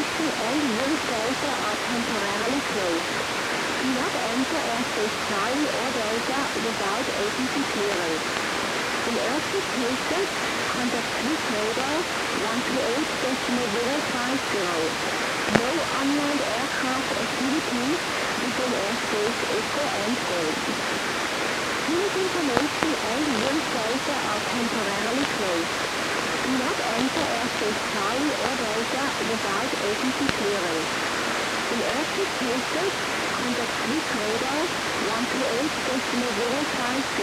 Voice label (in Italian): AM Volmet Voce Femminile; radioascolto